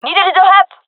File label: takingfire, gendarmerie fem